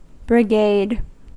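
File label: "brigade", US English; pronunciations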